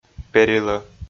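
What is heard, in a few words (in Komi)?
"перилӧ", Komi, male; audio dict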